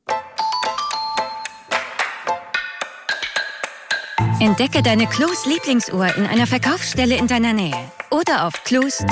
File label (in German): spot deutschland; cluse